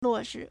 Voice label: "luo4shi2", Chinese